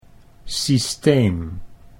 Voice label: "systeem", Dutch